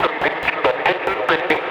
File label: TECHNO VOCALS 140; Techno2